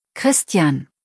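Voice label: "Christian", German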